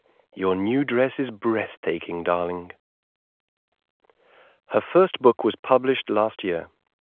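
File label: male narrowband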